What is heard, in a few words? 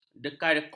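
"dekagon", Malay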